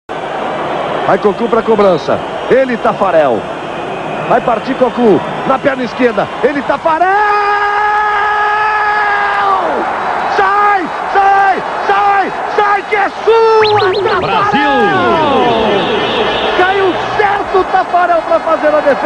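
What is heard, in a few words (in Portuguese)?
VOZES MASCULINAS, Padrão Motivacional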